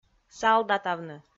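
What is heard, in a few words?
"салдатавны", Komi, female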